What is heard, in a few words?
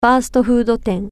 fast food restaurant, japanese